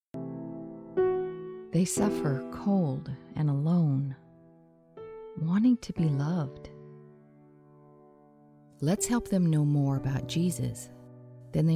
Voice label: Narration